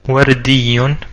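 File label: pink, Serbian; gcompris; colors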